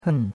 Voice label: "hen4", chinois; Cours-de-Chinois

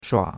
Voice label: "shua3", chinois; Cours-de-Chinois

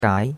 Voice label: "gai2", chinois; Cours de Chinois

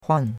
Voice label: "huan4", chinois; Cours-de-Chinois

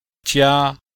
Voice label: "jia1", chinois; Cours-de-Chinois

